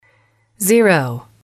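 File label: "zero", female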